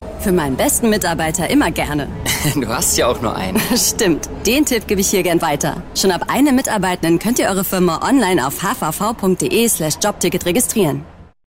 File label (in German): Werbung; HVV